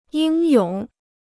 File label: "英勇", Chinese